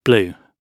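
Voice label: "blew", British English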